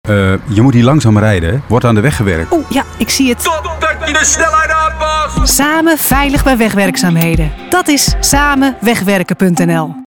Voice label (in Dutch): Radioreclame Nederlands